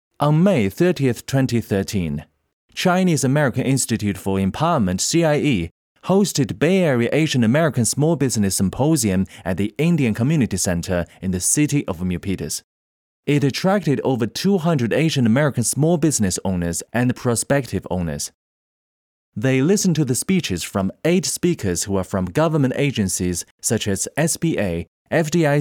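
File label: Mandarin English M Corporate Narration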